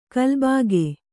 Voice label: "ಕಲ್ಬಾಗೆ", Kannada; kalbāge; noun